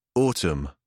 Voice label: "autumn", British English